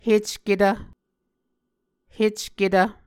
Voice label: "hecketv", Muscogee

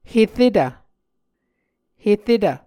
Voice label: "heretv", Muscogee

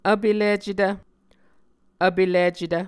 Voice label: "vpelicetv", muscogee

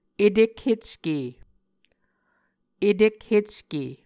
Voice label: "etekhecke", Muscogee

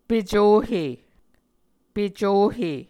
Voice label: "pecohe", Muscogee

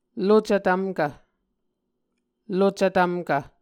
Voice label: "lucv tvmkv", Muscogee

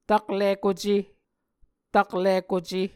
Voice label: "taklikuce", Muscogee

